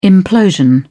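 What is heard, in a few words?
"implosion", British English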